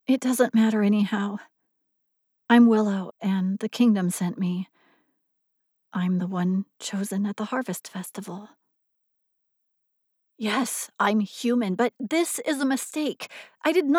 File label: Character Reel Fantasy